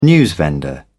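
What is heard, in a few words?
"newsvendor", British English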